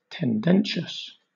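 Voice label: "tendentious", British; pronunciations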